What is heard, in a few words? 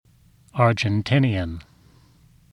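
"argentinian" English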